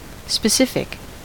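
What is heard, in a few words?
"specific", American English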